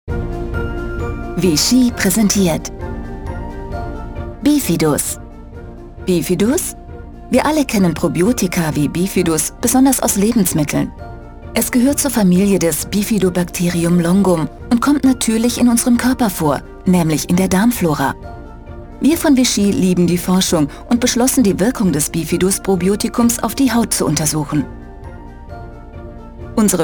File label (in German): Produktfilm; Vichy Slow Age